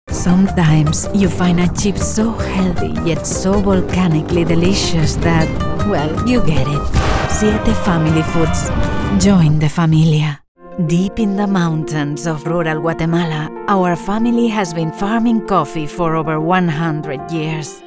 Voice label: Accented English

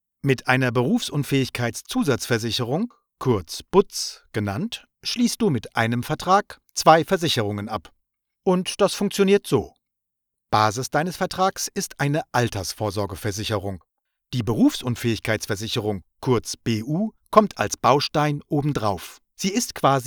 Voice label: ELearning

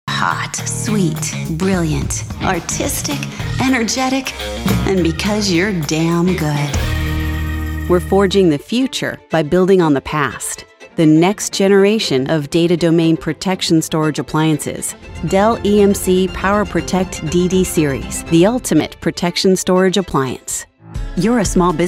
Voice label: Narration

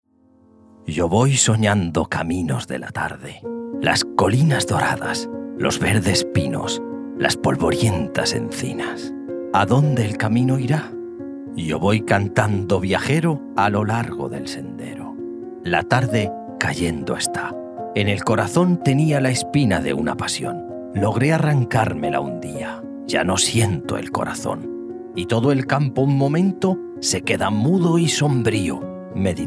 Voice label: SPANISH RHYMING

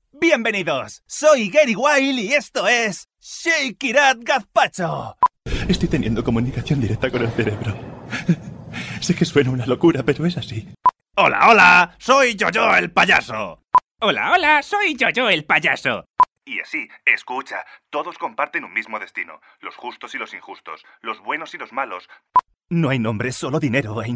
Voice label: Dubbing, Interpretation, Videogames

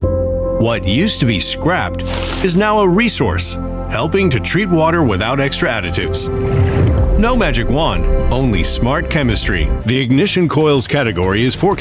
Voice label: Corporate Voiceover

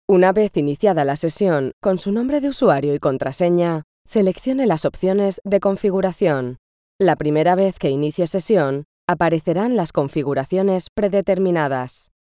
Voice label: ES Elearning

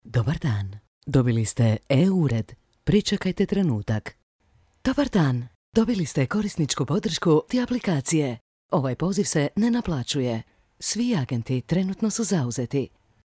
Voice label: IVR SAMPLE; Croatian

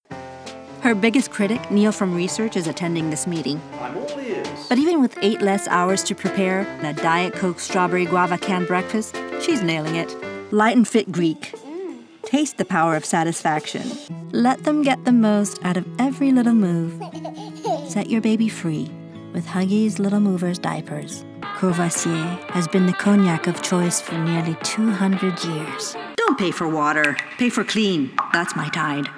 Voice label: Commercial

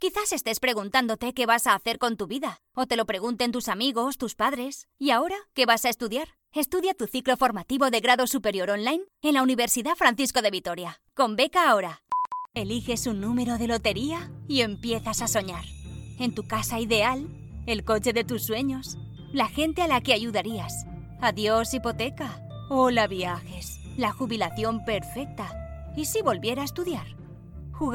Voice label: SPANISH

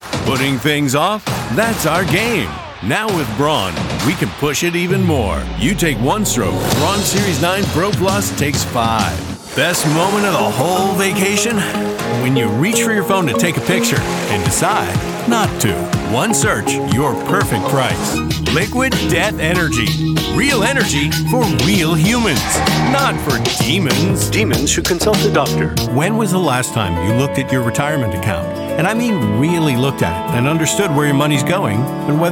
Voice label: Commercial Voiceover

